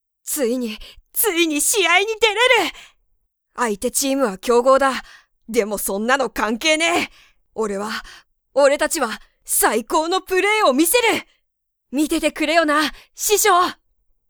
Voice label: sample man